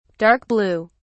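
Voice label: "dark-blue", British English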